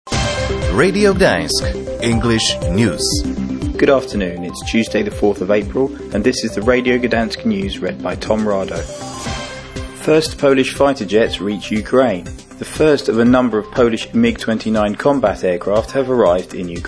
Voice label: English News